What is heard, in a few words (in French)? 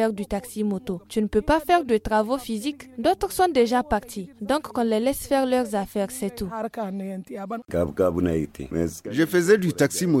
FR VOX POP AUTORISATION CIRULATION MOTOS; BALLAYARA